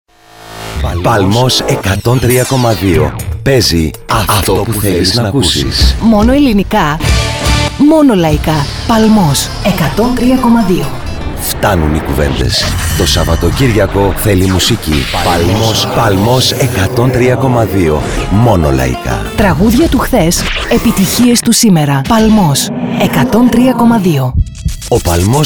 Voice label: Sweepers; Spotcity; Palmos